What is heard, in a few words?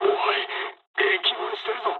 damaged water mood med, speech jp; War Thunder